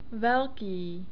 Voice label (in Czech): "velky", Czech; long vowels